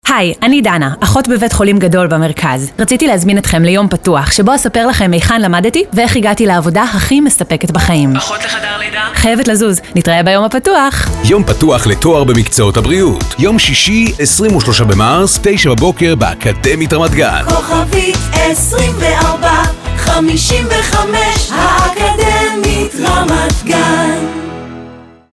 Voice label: hebrew documentaries

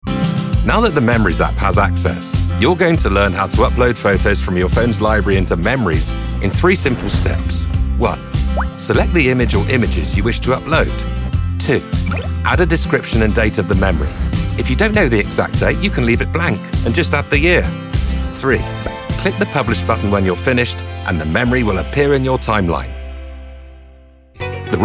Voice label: english british e-learning

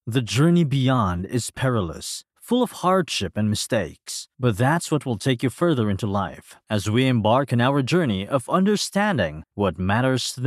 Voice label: English audiobooks

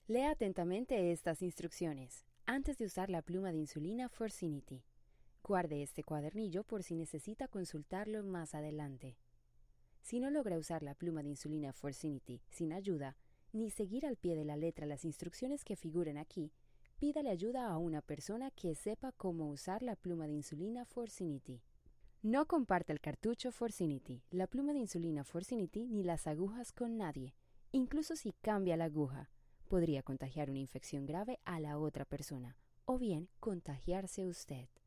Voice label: spanish colombian e-learning; Voz versátil y expresiva, hablante nativa de español

